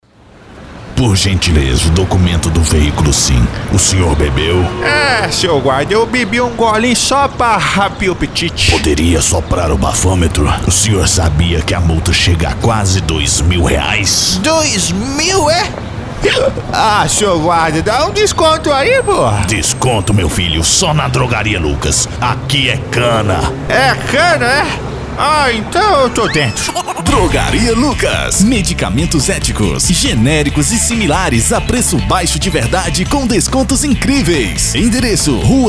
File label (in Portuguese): Spot